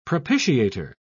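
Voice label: "propitiator", British English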